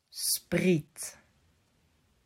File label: "Spriet", Dutch